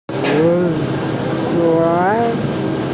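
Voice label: "lun2chuan2", Mandarin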